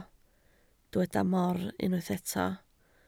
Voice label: "mor", Welsh